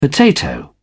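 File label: "potato", British English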